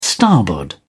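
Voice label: "starboard", British English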